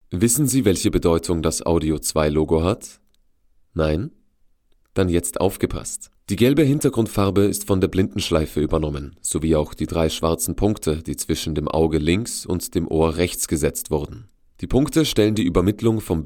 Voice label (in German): Oesterreichisches Deutsch, sprechproben